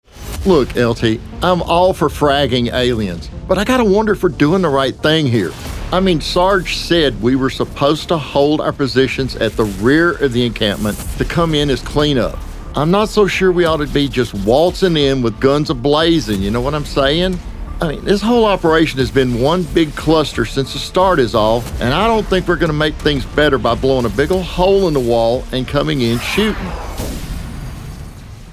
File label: Sci Fi Cinematic